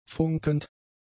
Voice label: "funkend", German; adjectives

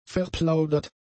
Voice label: "verplaudert", german; adjectives

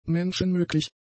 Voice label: "menschenmöglich", German; adjectives

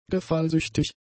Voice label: "gefallsüchtig", German; adjectives

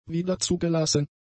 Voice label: "wiederzugelassen", German; adjectives

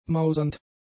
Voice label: "mausernd", German; adjectives

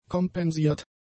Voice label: "kompensiert", German; adjectives

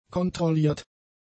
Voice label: "kontrolliert", German; adjectives

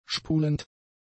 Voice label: "spulend", German; adjectives

